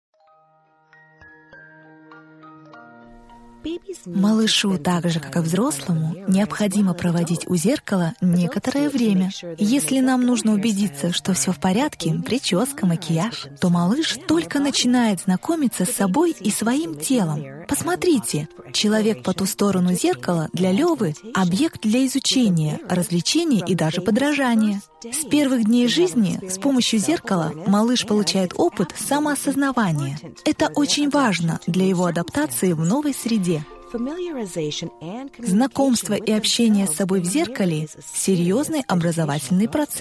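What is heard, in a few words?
Russian, Documentary; GoLocalise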